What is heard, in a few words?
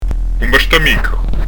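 "un basta micca", Corsican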